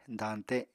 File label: "dañte", Apatani